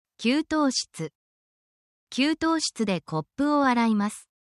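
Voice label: Japanese word, essential Japanese phrases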